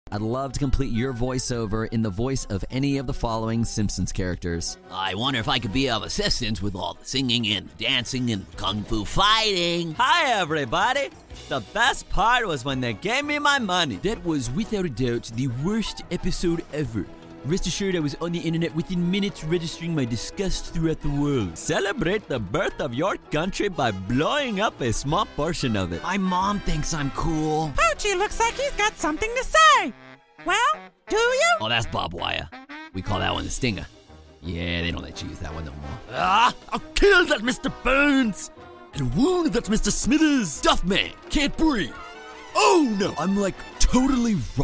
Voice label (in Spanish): interpretacion ingles USA